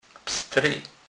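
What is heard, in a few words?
"pstry", Polish, Kurpie dialect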